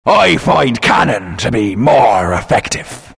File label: announcer; Capn Kunkka